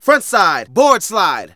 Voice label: Narration